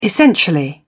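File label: "essentially", British English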